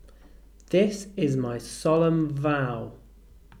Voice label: "vow", English